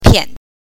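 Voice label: "pian", pinyin, neutral tone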